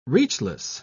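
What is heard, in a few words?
"reachless", British English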